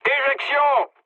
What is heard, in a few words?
bailout mood low, speech fr; War Thunder